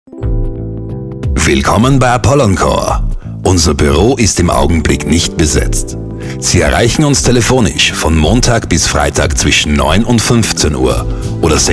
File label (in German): mailbox ansage mit musik